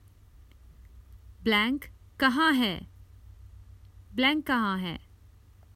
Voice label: Common Hindi Phrases for travel Where is